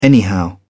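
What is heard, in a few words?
"anyhow", British English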